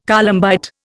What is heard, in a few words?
"columbite", Indian